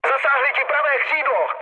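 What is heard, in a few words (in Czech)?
Czech right wing, mood low; speech; War Thunder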